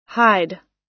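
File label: "hide", US English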